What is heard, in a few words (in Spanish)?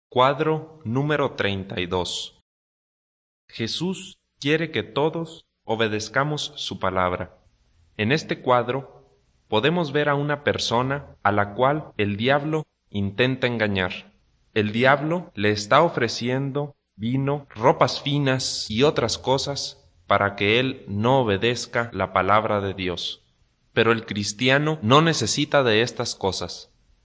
good news spanish mexico